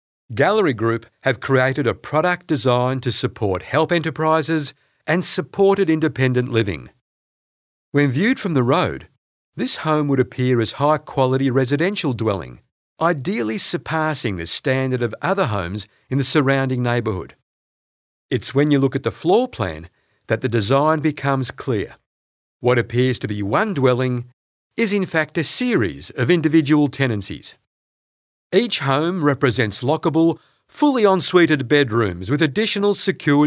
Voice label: english australian corporate videos